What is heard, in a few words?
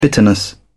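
"bitterness", British English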